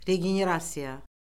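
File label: "regenerasiya", Azerbaijani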